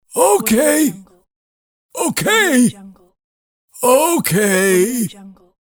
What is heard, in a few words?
cartoon grandpa okay